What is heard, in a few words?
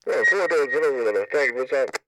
Police Radio Chatter; law; Gameznet